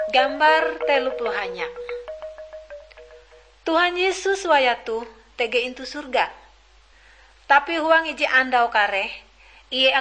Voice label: kabar bahalap good news ngaju, drama